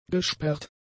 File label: "gesperrt", German; adjectives